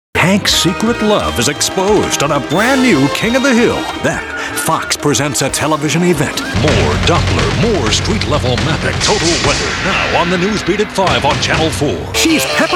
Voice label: promo, male voice talent

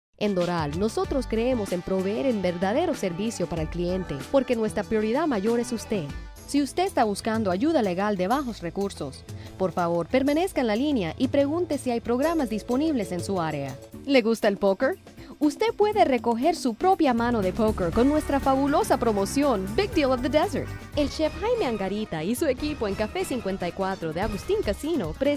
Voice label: cuban; Spanish

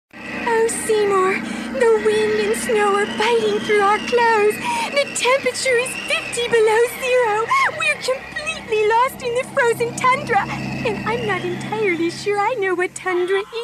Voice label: female voice talent

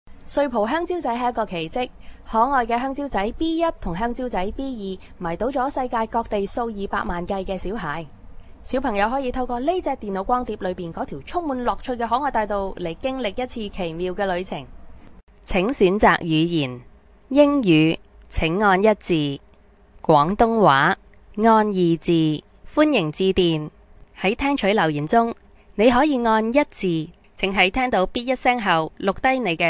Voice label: cantonese

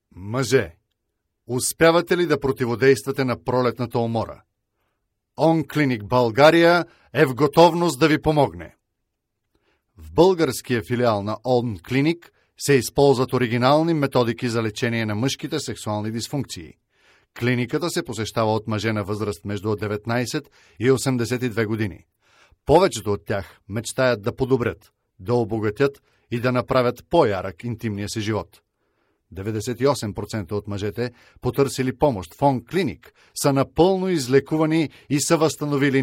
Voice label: Bulgarian; foreign languages

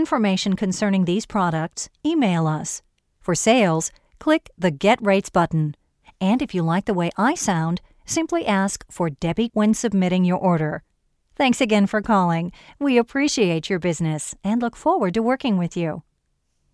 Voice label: Voice Sample, onhold

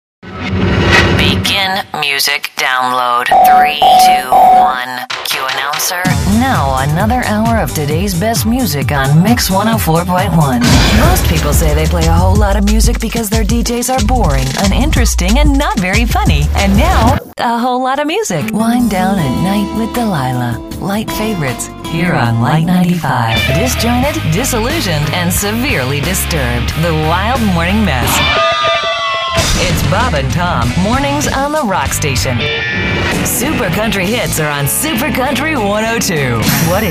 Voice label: female voice talent imaging

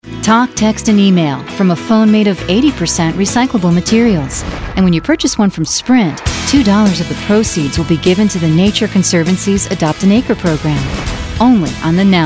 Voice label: female voice talent

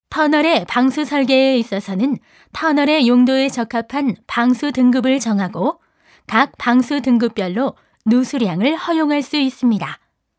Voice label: Korean; foreign languages

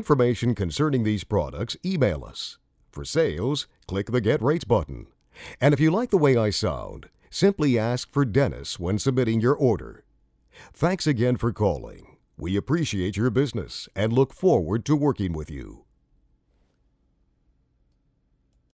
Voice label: Voice Sample, onhold

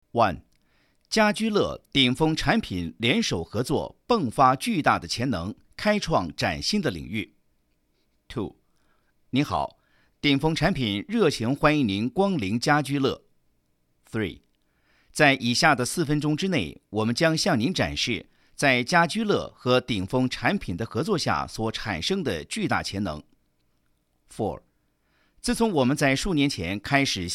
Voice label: chinese

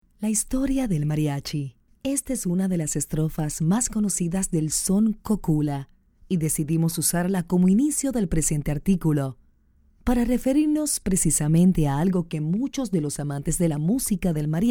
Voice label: narr

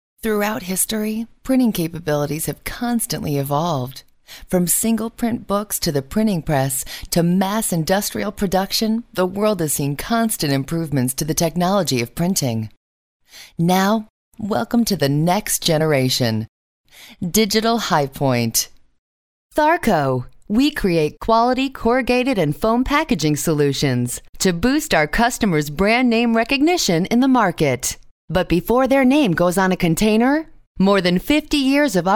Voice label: narr, female voice

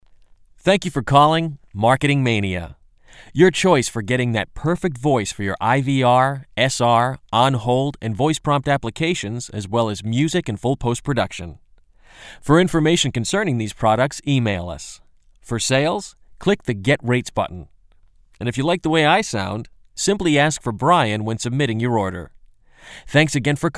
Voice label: Voice Sample, onhold

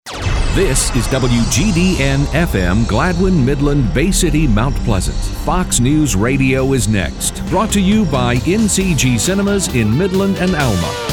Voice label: Imaging, male voice talent

